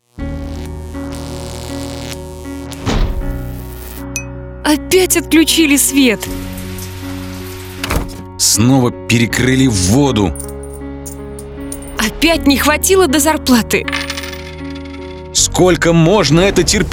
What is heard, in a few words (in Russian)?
diktory; VGTRK